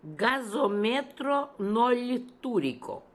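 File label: "Gasometronoltooriko", pronunciations; Fourth Way; Beelzebub pronounciations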